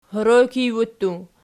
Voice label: "hwuttu", Archi